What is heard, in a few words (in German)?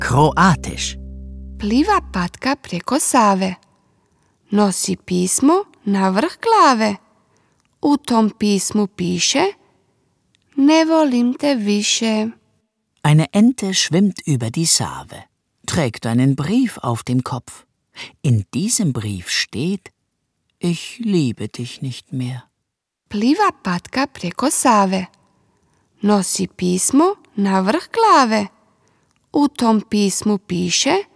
Kroatisch, kinderverse